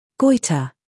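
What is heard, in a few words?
"scandal", American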